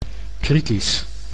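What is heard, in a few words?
"kritisch", Dutch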